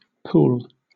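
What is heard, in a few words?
"pool", British English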